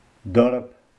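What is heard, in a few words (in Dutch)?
"Dorp" Dutch dialect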